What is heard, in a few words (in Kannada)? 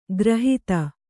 "ಖುರಾಹತಿ", Kannada